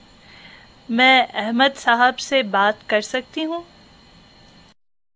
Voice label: Phone female

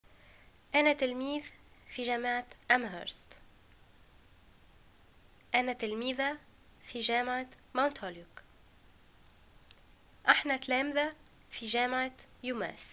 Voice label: student at, arabic

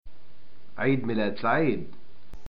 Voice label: greeting birthday, arabic

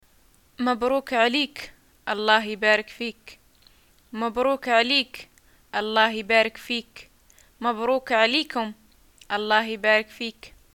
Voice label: greeting new thing, arabic

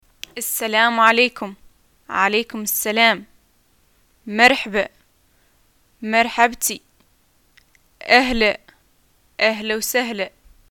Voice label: greeting hi and response, arabic

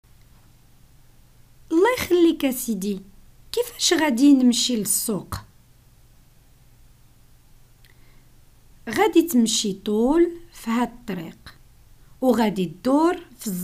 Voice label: Moroccan Arabic dialogue directions

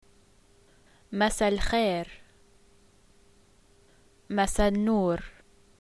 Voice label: greetings good evening, arabic levantine

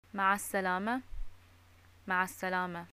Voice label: greeting goodbye, arabic

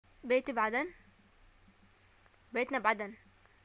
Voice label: i live in aden, arabic

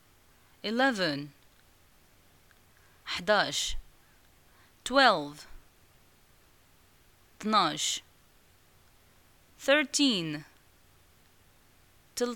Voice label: cardinals 11 19, Arabic, North African, Moroccan

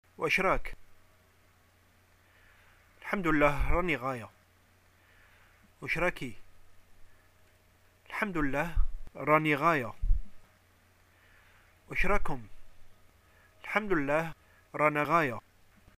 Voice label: greeting how r u, arabic

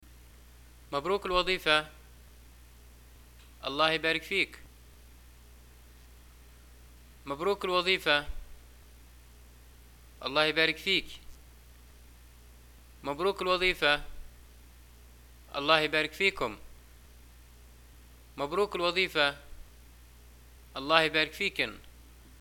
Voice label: greeting job, arabic, ly

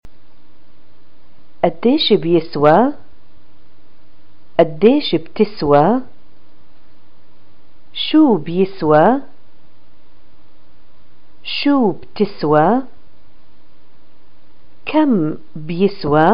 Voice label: shopping how much, arabic

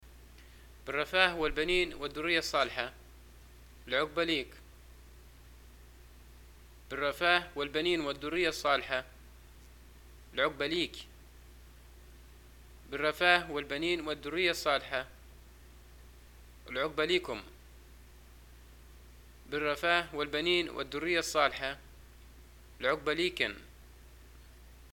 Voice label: greeting marriage, arabic, ly

